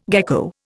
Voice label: "gecko", Indian

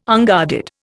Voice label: "unguarded", Indian

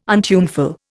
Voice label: "untuneful", Indian

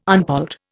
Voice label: "unpolled", Indian

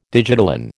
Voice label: "digitalin", American

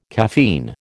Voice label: "caffeine", American

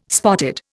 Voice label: "spotted", Indian

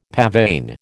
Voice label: "pavane", American

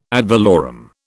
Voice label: "ad valorem", British

